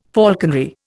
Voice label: "falconry", Indian